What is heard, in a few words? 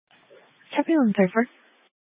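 Police Dispatch, scanner audio recording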